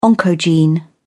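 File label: "oncogene", English, British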